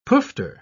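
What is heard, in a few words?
"poofter", British English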